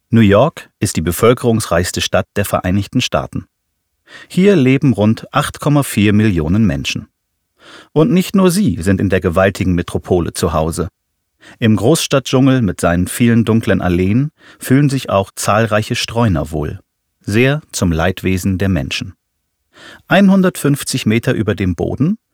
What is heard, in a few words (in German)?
Voiceover Erzaehler; LOFT VOICES